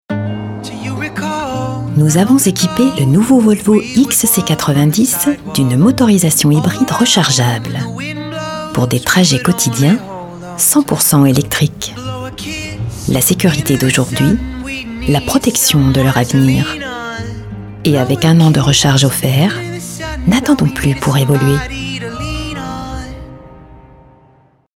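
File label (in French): PUB, Voix off; Volvo XC90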